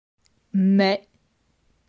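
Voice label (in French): "Mes", French; grammaire; adjectifs possessifs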